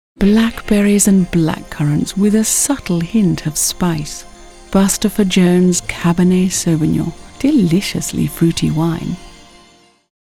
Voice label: Ad Wine; Bustopher Jones